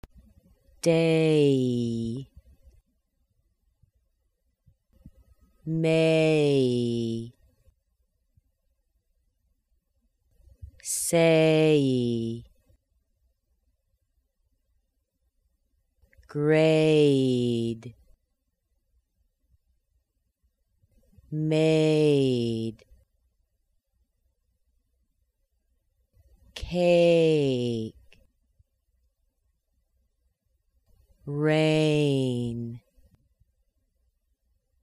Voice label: ey words slow, American accent

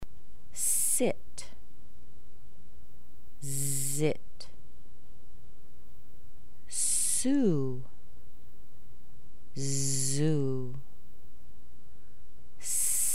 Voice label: S Z words slow, American accent

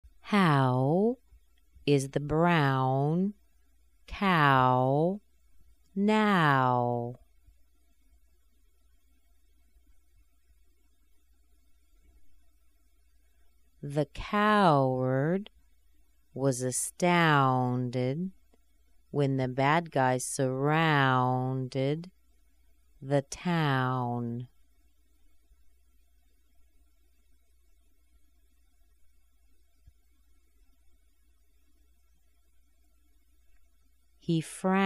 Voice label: aew sentences slow, American accent

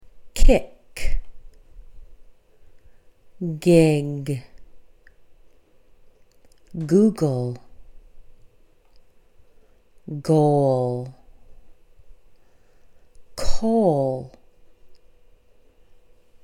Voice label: G K Words Slow, American accent

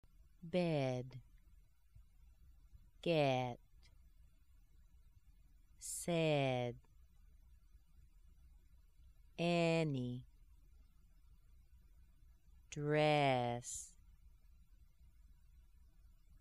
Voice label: eh words slow, American accent

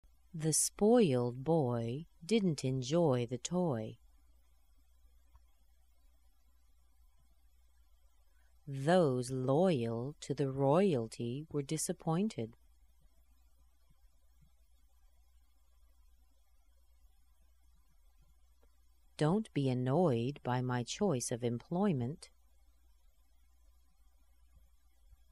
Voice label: oy sentences, American accent

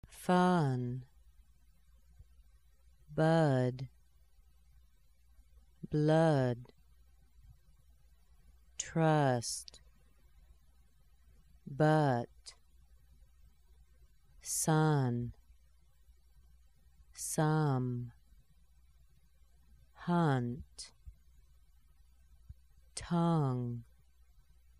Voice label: UH Words Slow, American accent

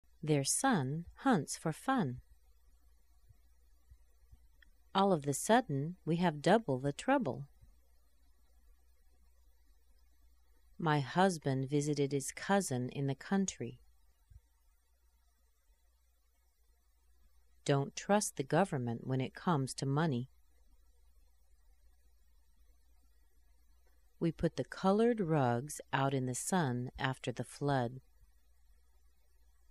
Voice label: UH Sentences, American accent

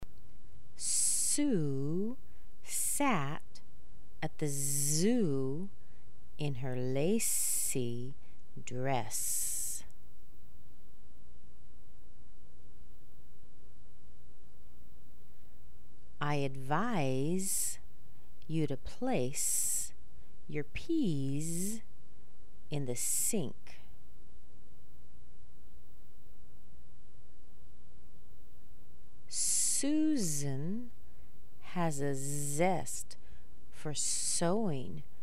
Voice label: S Z sentences slow, American accent

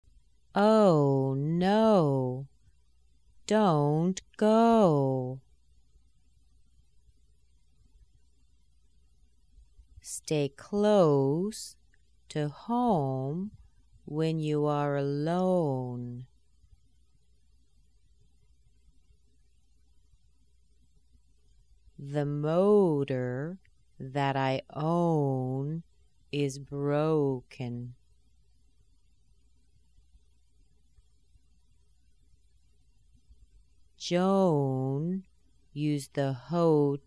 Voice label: ow sentences slow, American accent

